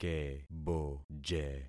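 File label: "keboje", concatenated syllables; Affixing experiment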